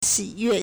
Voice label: "xi3yue4", Chinese